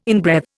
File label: "inbreathe", Indian